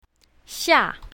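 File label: "xia4", pinyin, south, female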